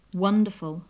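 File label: "wonderful", British English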